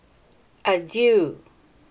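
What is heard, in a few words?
"adieu", Filipino, female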